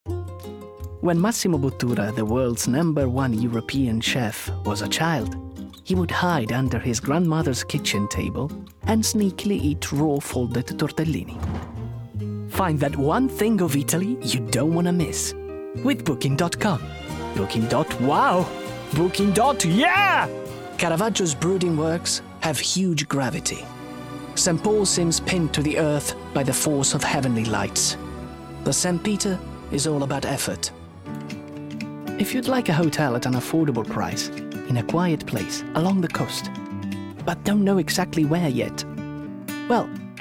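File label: Italian English, male; accent of italy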